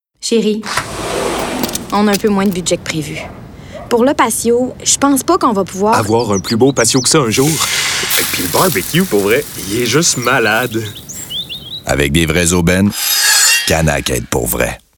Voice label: 15s radio; Canac; Patio